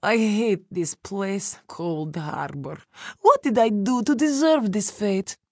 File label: Khajiit F; Coldharbour